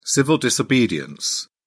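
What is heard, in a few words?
"civil disobedience", British English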